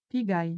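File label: "Pigalh", Occitan Gascon; Prêts pour l’Òc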